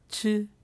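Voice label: "chi", syllable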